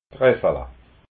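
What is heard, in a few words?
"gressala", Alsatian, Haut Rhin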